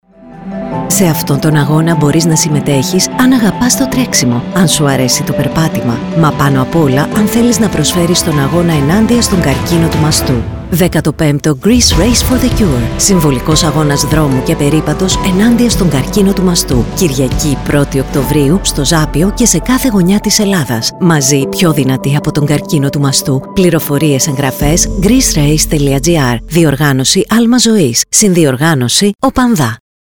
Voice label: RADIO SPOT; GRFC®2023